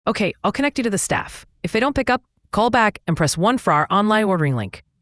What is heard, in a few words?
prompt, ivr; rosas pizzeria